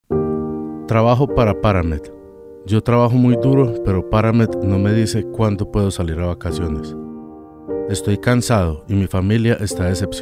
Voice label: Spanish; Paramed